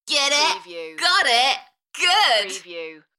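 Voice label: British female Get it got it good Enthusiastic; beanstalk audio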